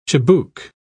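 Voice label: "chibouk", British English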